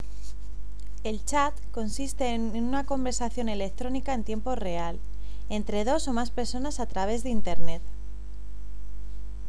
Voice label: chat